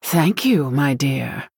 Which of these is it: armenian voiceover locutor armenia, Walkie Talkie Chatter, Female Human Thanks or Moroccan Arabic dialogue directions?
Female Human Thanks